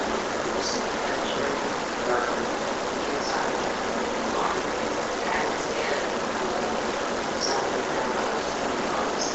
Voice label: Unexplained (Huh) and Female Voice (Thats it) Amplified